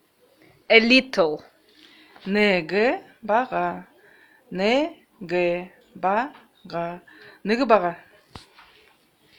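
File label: Buryat A little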